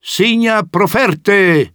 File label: latin Military; age2